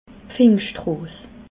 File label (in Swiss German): "pfingschtros", alsace